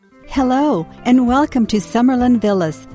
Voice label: female voice